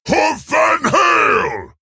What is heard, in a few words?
Male Centaur, Attack